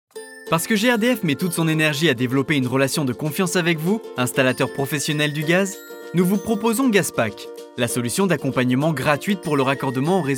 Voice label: 外籍法语男 年轻男音

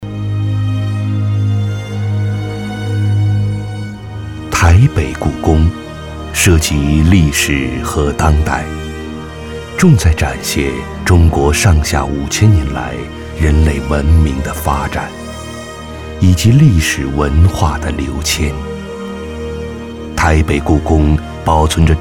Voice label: 男 宣传片 历史神秘感; 台北故宫

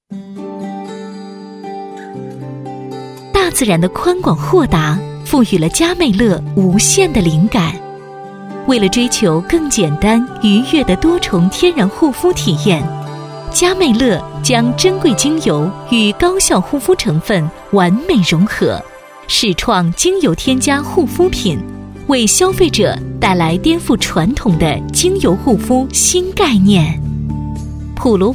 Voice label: 广告,阳光; 普罗旺斯

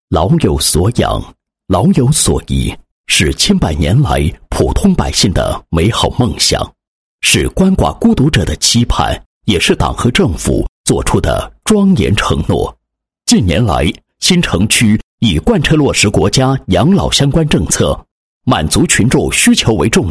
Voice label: 男 解说词 民政局养老院解说词

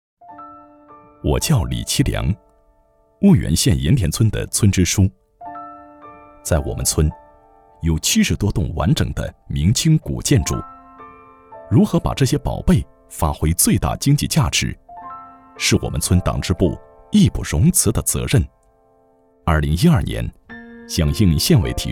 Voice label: 男 人物自述感觉 景村建设

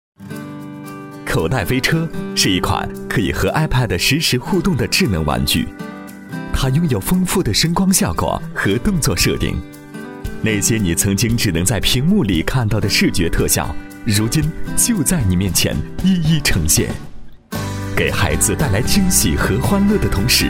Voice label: 男 产品介绍, 国语男声配音; 口袋飞车产品介绍

